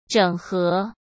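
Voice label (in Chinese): "整合" Mandarin Chinese; hsk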